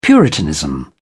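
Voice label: "puritanism", British English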